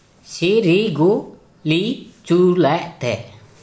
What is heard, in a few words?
"sirigu(ʔ) li-tʃu=læʔ=tɛ", Gta; Fabaceae type has set fruit